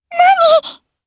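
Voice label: Vox Sur Japanese; Lavril; kingsraid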